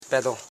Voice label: "pe don", Koro house parts

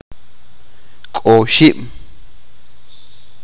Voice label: "fear", achi

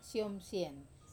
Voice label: "som sien", Wamesa

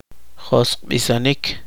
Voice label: poqomchi; enojar enfurecer

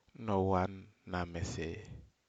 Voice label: Aneityum coconut

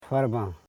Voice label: "far ban", Koro

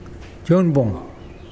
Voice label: moon, Mokilese

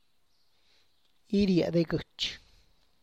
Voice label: "iria de gëtx", Tlacochahuaya Zapotec; separate small ears of corn; (Spanish) separar jilotes

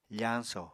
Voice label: "fañso", Apatani

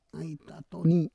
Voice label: "ai tatoni", Roon

